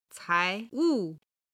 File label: "cai2wu4", chinese